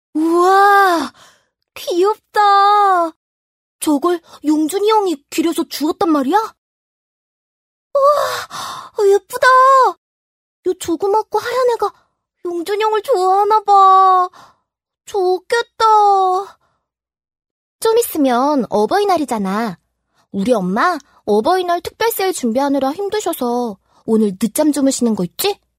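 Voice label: Korean, female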